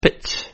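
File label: "pitch", English word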